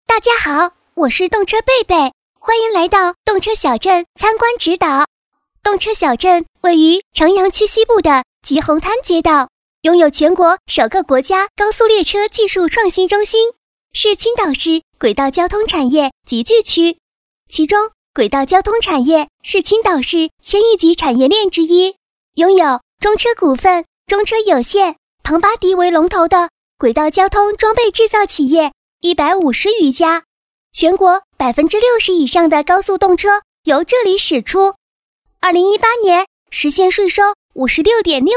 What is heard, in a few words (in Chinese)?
女-童声-动车贝贝